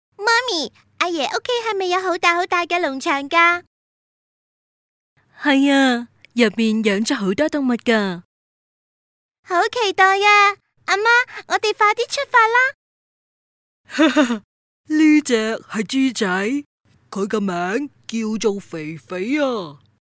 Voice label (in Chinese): 粤女 童声故事; 小猪胖胖